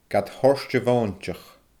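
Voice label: "gad thoirt a mhoointeach", Scottish Gaelic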